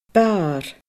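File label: "barr", Scottish Gaelic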